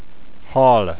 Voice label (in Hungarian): fish, hungarian